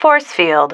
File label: "force field", female